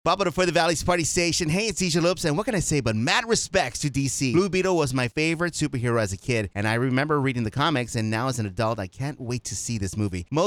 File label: PROMO; BLUEBEETLE; KBFM